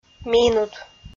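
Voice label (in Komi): "минут", Komi, female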